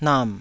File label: "nam3", taiwanese